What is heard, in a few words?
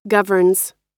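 "governs", American English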